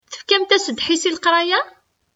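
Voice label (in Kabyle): Tefkamt-as ddḥis i leqṛaya?, Kabyle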